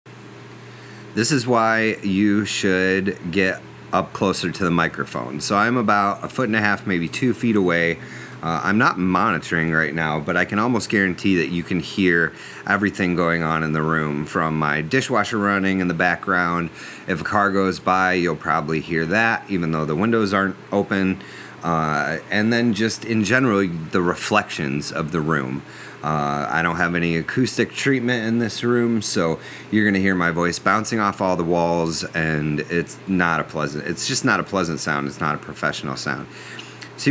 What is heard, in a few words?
Voiceover Samples EQ and Compression